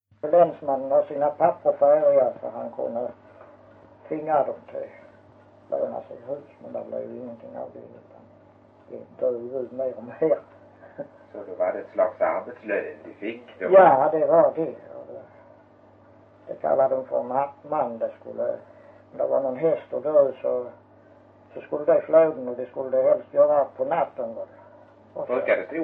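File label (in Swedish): Ravlunda Skåne, dialekter; Folkminnen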